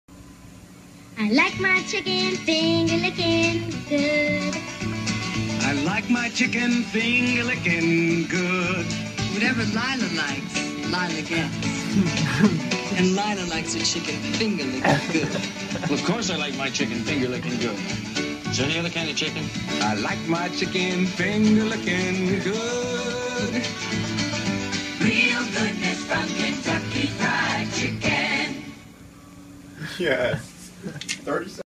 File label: commercial; KFC